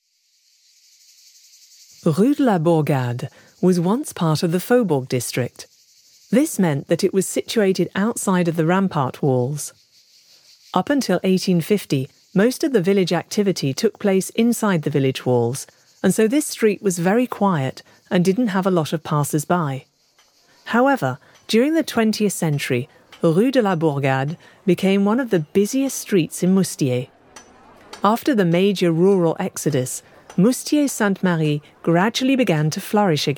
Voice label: EN Adulte; Moustier